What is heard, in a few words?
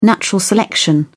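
"natural selection", British English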